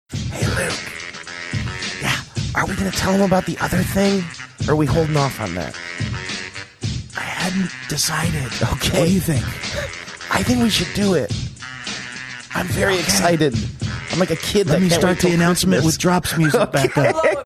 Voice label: whispering under announcement music

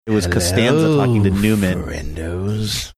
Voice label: saying hello friendos ominous

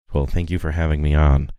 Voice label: saying well thank you for having me on npr voice

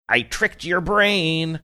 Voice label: saying i tricked your brain sing songy

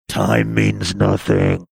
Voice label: gruff; time means nothing

